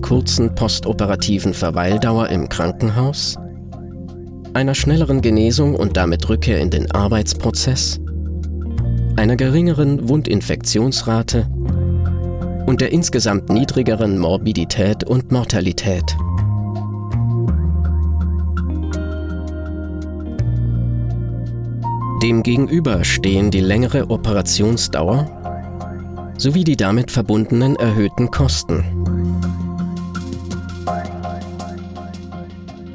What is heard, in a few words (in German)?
Erklärfilm Appendektomie